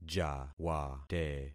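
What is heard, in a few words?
"jawate", concatenated syllables; Affixing experiment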